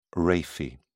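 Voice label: "raphe", British English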